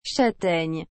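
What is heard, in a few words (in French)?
"châtaigne", French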